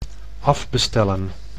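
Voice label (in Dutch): "afbestellen", Dutch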